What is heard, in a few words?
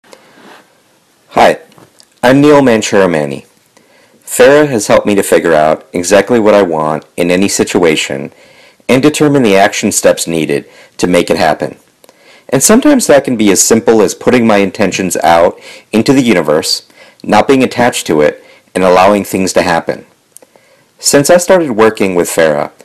testimonial